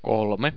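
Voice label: "kolme", Finnish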